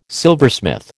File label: "silversmith", American